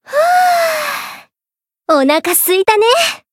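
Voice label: win, Japanese; flammelapis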